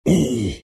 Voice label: treant pain